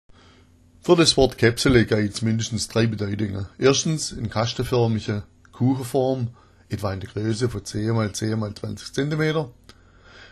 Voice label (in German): kaepsele, schwaebisch